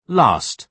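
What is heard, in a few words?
"last", British English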